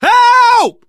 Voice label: help, survivor voice, manager